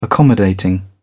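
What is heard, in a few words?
"accommodating", British English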